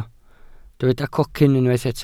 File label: "cocyn", welsh